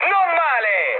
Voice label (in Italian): trick, mood high, speech it; War Thunder